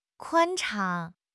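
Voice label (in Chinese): "宽敞", Chinese; HSK6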